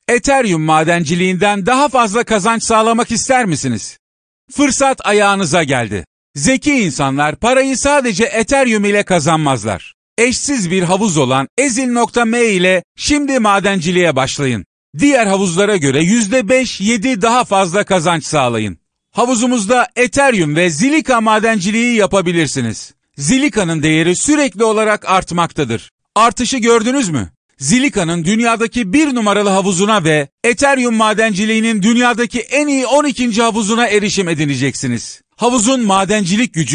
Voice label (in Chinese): 土耳其语男 外语 小语种 样音